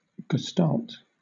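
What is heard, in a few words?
"gestalt", British English; pronunciations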